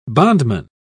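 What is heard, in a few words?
"bondman", British English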